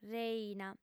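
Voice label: "reina", female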